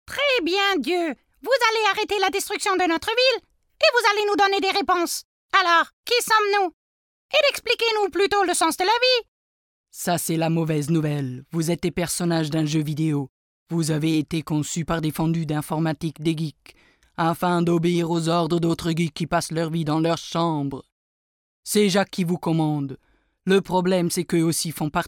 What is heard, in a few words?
voice comic dialog french